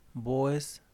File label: "toro", Yaqui; Vocabulary